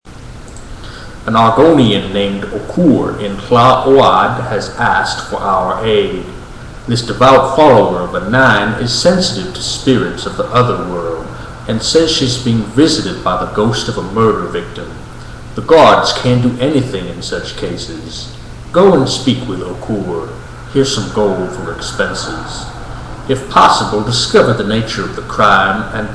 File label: redguard, voice auditions